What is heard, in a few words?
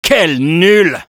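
Spy taunts, French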